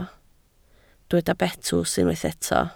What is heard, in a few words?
"betws", Welsh